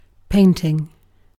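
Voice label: "painting", British English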